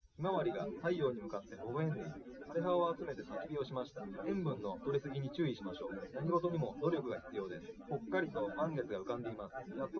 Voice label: test male liverec 250ms 1m src; SiSEC08; SiSEC underdetermined